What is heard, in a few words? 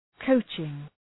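"coaching", English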